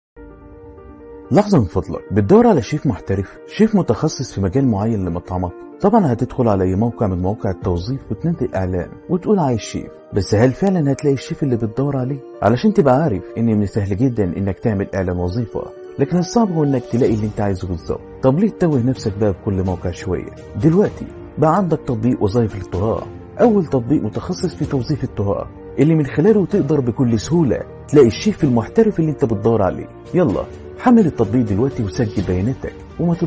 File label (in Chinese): 阿拉伯语男 外语 小语种 年轻